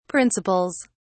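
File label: "principles", British English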